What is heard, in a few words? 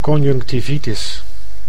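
"conjunctivitis", Dutch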